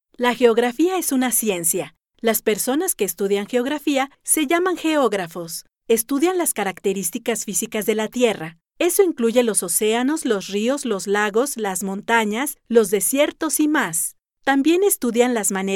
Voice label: Spanish Latin